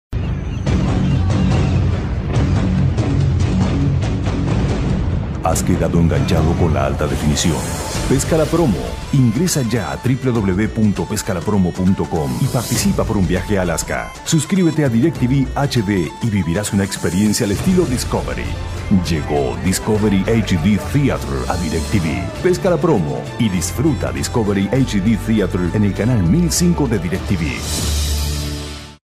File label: latin spanish promo, Male Deep Latin Spanish Voice